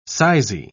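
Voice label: "sizy", British English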